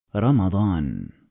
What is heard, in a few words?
"Ramadan", Arabic